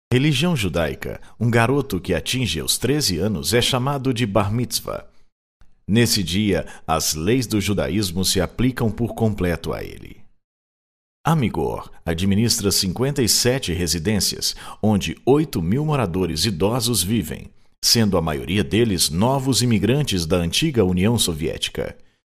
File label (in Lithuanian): (VYR) Brazilu balsas, vyras